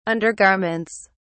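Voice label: "undergarments", English, British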